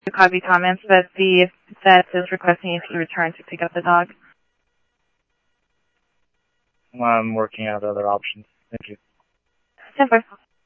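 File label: police department, scanner audio trunk; SCTSDLE PD - PD1 ScottsdalePolice; H-Scottsdale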